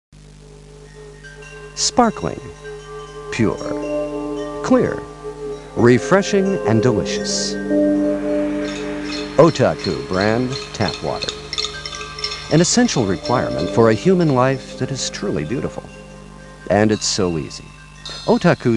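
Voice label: narration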